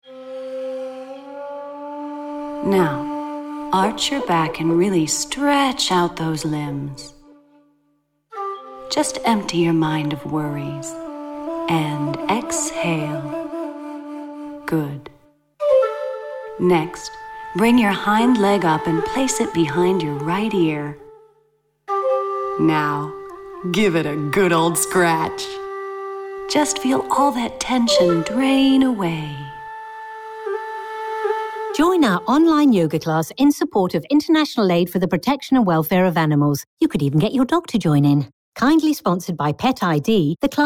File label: radio commercial, English UK, female